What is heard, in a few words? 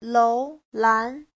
"lou2lan2", chinese